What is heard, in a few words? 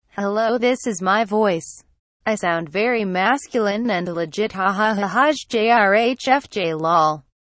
hello this is my voice i sound very masculine and legit haha lol